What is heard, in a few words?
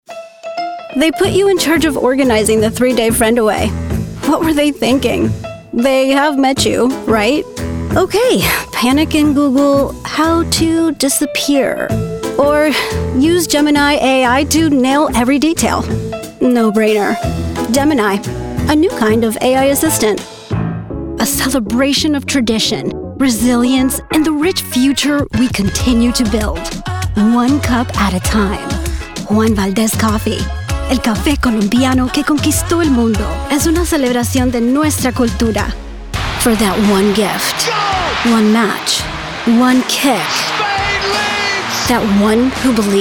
Commercial Voiceover